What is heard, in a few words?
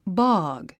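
"bog", English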